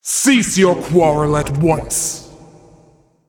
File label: Female Titan Trigger